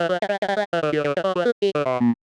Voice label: medium clear timid, voice archetypes; voxel audio preview